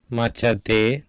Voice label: "macate", Sanskrit

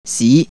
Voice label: Cantonese history

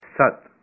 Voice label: Punjabi, seven

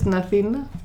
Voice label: Female conversational Athens

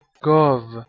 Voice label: "gov", Persian

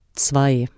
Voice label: "zwei", German

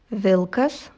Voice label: "vilkas", Lithuanian

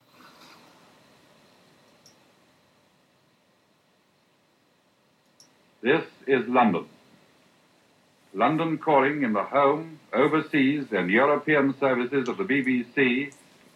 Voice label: The landing in France, older general british

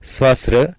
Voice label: "svasr", Sanskrit

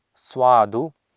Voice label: "swaadu", Sanskrit

